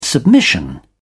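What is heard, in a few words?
"submission", British English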